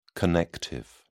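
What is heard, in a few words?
"connective", British English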